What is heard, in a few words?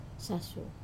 "sasu" clothes, Wamesa